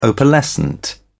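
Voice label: "opalescent", British English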